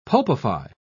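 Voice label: "pulpify", British English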